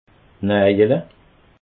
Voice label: "najele", alsace

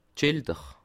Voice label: "schilder", alsace

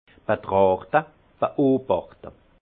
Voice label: "betrachte", alsace; vin; observer

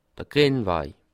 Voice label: "grien", alsace

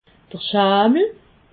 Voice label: "schammel", alsace

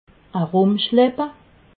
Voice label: "herumschleppe", alsace

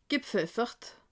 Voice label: "gepfeffert", alsace

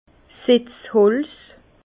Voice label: "setzholz", Bas Rhin; vin; plantoir

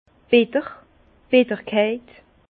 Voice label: "bitterkeit", Bas Rhin; vin; amer

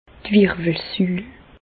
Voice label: "wirwelsul", Bas Rhin; medecine; colonne vertébrale

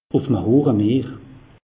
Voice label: "hochemeer", alsace; meteo

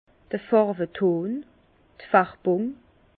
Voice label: "farweton", Bas Rhin; vin; teinte

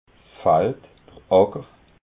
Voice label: "fald", alsace

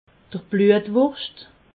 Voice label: "bluetwurscht", alsace

